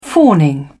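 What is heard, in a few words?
"fawning", British English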